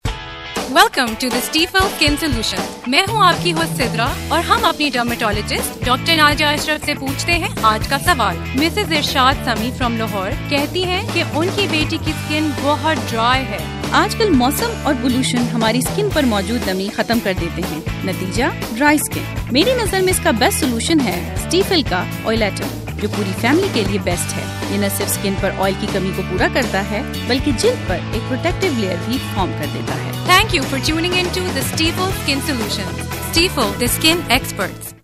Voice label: spot, radio